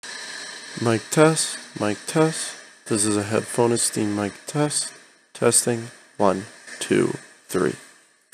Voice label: Noisy Environment Mic Test

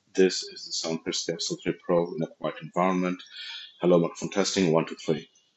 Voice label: quiet; capsule3

